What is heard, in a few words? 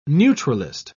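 "neutralist", British English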